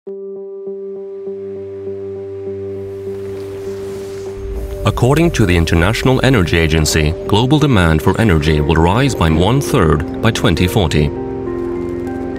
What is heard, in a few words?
male icelandic and norwegian english with natural non specific northern; european